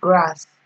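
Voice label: "grass", Nigerian Pidgin, female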